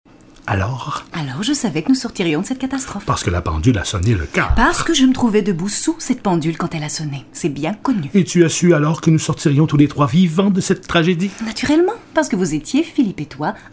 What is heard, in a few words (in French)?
demo voix narration; cotton